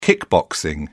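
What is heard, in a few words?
"kickboxing", British English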